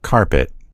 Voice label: "carpet", en US; gcompris